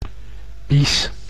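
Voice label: "bies", Dutch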